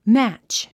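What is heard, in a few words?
"match", English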